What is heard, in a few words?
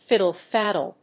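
"fiddle-faddle", English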